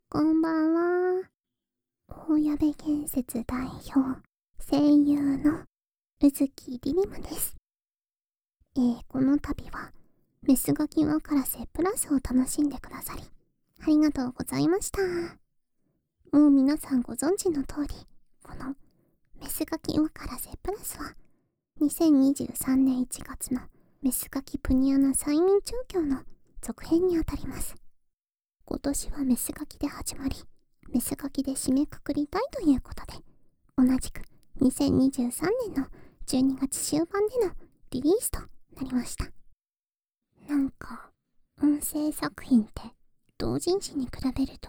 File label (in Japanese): フリートーク; メスガキわからせプラス; 声優フリートーク; ロリオナホ調教ASMR